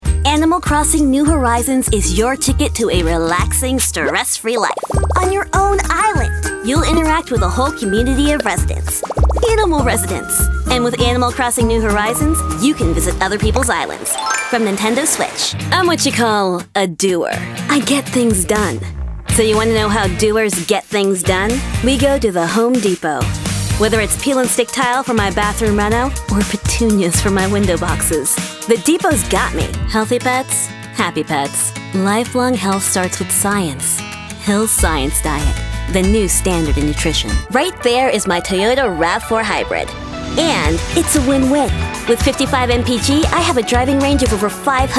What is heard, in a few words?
Commercial